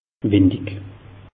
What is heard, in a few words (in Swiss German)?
"windig", alsace; meteo